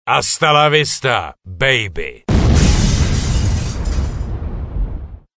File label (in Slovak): sms hlásky cz